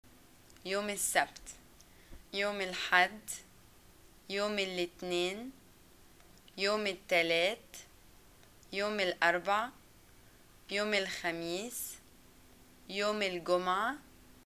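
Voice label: days, Egyptian Arabic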